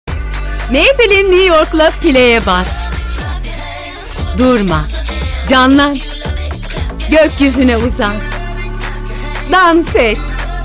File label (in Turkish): reklam; maybelline